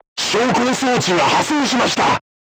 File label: voice message vertical aiming drive damaged, crew tanks japanese